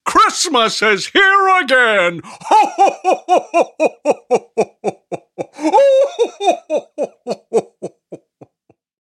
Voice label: Cartoon Holidays Santa Claus Voice Christmas Is Here Again Laughing; Apple Hill Studios